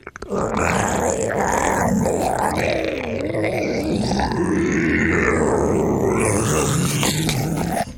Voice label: Zombie Idle